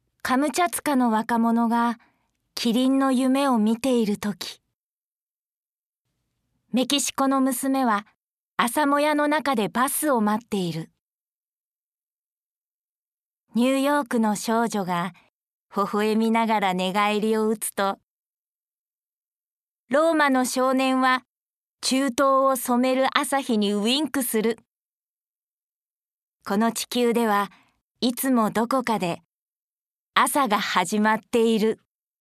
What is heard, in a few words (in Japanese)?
朗読